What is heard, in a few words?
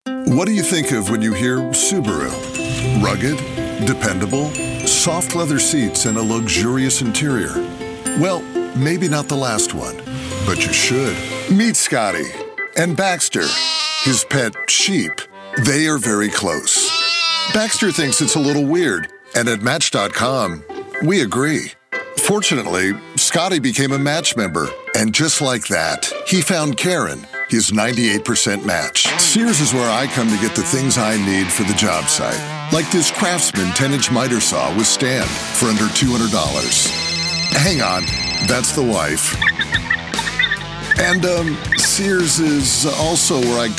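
English US, Commercial; GoLocalise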